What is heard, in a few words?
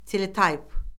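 "teletayp", Azerbaijani; Orfoqrafiya Lüğəti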